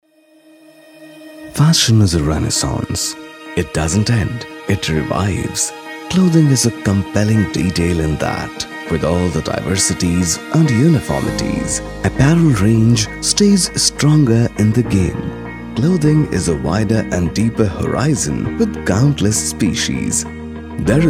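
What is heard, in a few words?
English corporate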